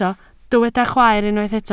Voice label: "chwaer", Welsh

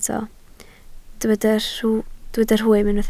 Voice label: "rhwym", Welsh

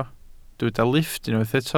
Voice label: "lifft", Welsh

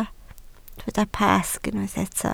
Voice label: "Pasg", Welsh

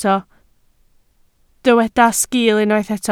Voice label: "sgil", Welsh

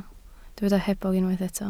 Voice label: "hebog", Welsh

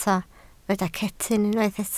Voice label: "cetyn", Welsh

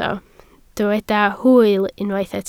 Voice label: "hwyl", Welsh

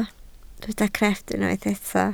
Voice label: "crefft", Welsh

